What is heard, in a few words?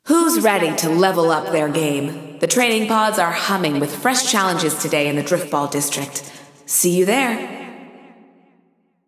Announcing New Challenges; Driftball; GAIA